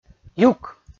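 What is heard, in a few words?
"юк", Komi, male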